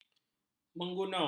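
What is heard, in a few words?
"menggunung", Malay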